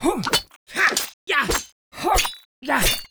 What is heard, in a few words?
Human Bandit Male Attacks